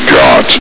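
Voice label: "got", male; hl2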